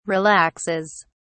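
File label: "relaxes", British English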